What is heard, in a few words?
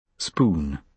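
"spoon", British English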